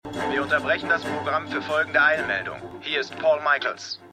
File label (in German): TV Sprecher; For All Mankind